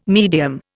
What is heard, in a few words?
"medium", Indian